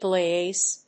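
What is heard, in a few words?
"blase", English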